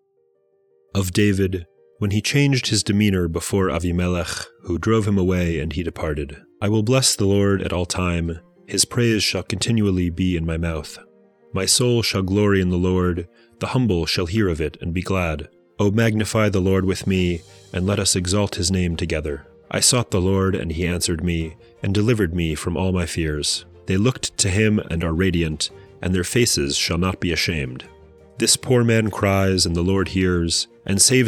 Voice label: poetry and prayer psalm english